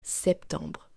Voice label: "septembre", French; days months seasons